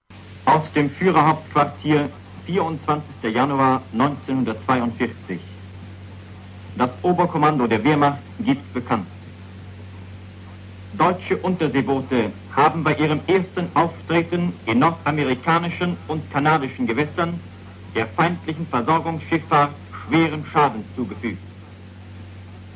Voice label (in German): sondermeldung; deutscher uboote vor new york; 1942